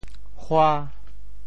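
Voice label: "hua5", Teochew; 潮州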